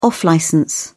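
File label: "off-licence", British English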